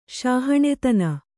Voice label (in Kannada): "ಶಾಹಣೆತನ", Kannada